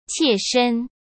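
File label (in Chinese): "切身", Mandarin Chinese; hsk; chinese-flashcards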